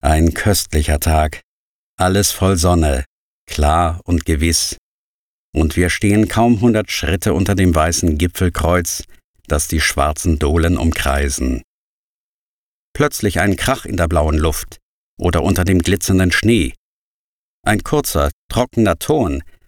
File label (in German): Lawine Erzaehlung